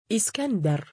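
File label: "2iskandar" male, Arabic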